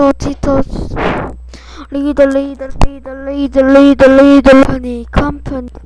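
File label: "lead", spoken word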